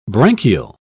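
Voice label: "branchial", British English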